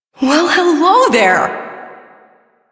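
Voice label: Female Brisk; Warframe; Duviri; Duviri Ambient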